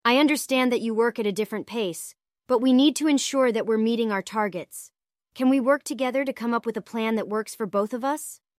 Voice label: synthesized audio